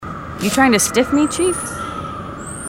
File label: female marines